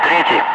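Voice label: Russian calm; Ka-50; Wingman; DCS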